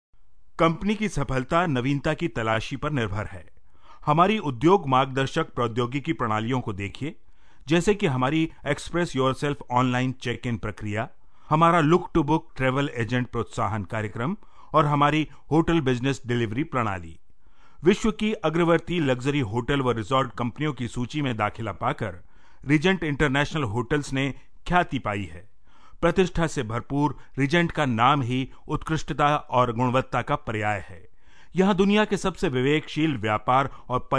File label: Hindi Male